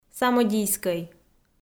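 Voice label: "самодийскӧй", Komi, female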